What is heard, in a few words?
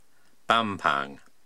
"Bampang", Pronunciation